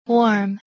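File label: "warm", English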